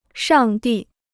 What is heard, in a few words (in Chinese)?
"上帝", Chinese